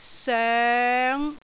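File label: "soeng5", Cantonese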